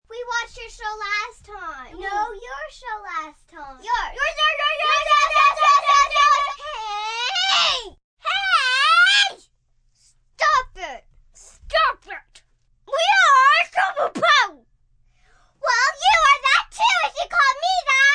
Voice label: Arguing Kids, small group of children, arguing (2 young children); human voice speaking